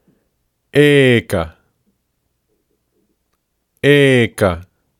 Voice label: "eka", sanskrit; Digit

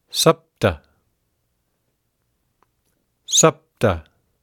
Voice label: "sapta", sanskrit; Digit

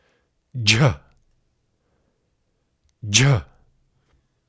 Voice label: "jha", sanskrit

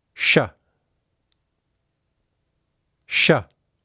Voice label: "Sha", sanskrit

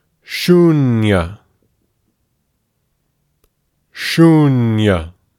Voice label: "shUnyam", sanskrit; Digit

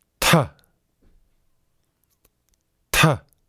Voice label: "tha", sanskrit

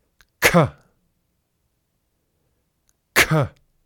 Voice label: "kha", Sanskrit

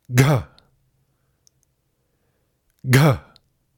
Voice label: "gha", Sanskrit

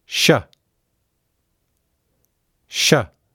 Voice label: "Sha", sanskrit

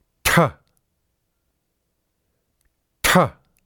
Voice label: "tha", sanskrit

